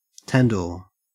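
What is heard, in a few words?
"tandoor", Australian English; pronunciation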